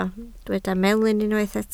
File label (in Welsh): "melyn", Welsh